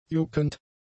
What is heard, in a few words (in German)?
"juckend", german; adjectives